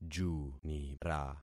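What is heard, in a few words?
"junira", concatenated syllables; Affixing experiment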